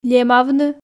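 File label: "лемавны", Komi, female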